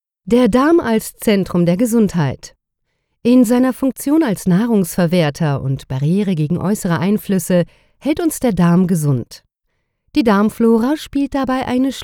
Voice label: E-Learning; Audioguide – Ausstellung [Blind Art]